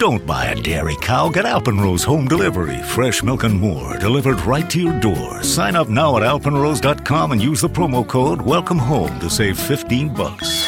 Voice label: Dairy Farmer Commercial; Alpenrose